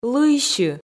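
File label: "лыйсьы", Komi, female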